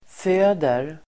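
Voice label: "föder", Swedish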